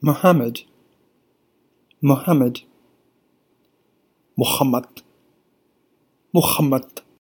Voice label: Mohammed, standard and dhimmi pronunciations; Taken from "Dhimmitude: 12 signs you're part of the problem", published by Breitbart New